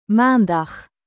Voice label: "maandag", dutch